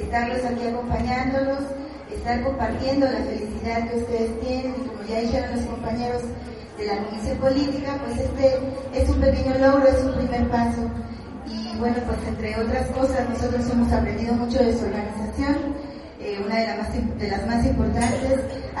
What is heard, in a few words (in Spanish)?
Palabras de Regeneracion Radio; Inaguracion predio General Felipe FPFVIUNOPII; inaguración unidad habitacional felipe ángeles fpfvi unopii